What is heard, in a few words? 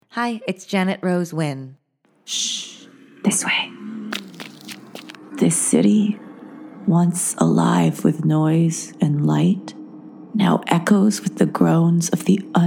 Video Game Voice